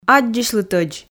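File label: "аддзысьлытӧдз", Komi, female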